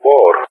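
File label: "Boar", English speech; CallSign; Allied Flight; DCS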